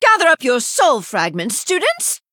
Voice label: Female High Elf Emote Bartender Picked